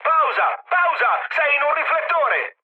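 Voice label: Italian searchlite mood med, speech; War Thunder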